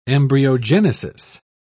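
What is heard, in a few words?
"embryogenesis", British English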